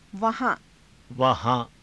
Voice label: "vahaa~", hindi

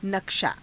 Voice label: "nakshaa", Hindi

